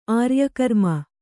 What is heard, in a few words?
"ಆರ್ಯಕರ್ಮ", Kannada